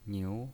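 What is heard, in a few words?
"niu" second tone, pinyin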